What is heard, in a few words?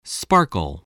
"sparkle", voice spelling